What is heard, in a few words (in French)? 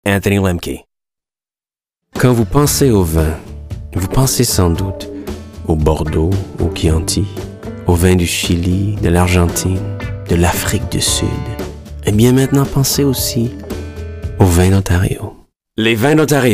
VIN D ONTARIO suave